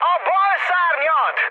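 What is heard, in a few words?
Hungarian left wing mood high, speech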